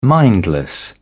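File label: "mindless", British English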